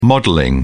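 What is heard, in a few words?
"modelling", British English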